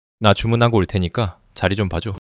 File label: neutral